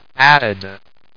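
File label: "added", English; A1VisualContact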